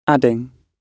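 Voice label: "adding", British English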